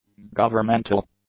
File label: "governmental", english; adjectives